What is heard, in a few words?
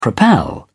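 "propel", British English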